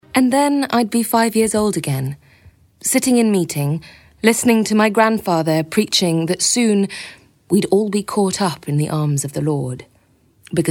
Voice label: audiobook